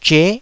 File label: "zhe9", Taiwanese syllable